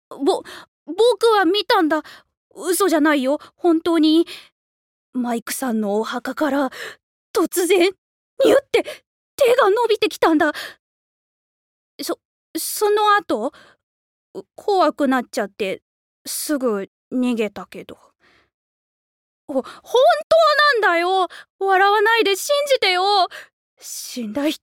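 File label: boy, Japanese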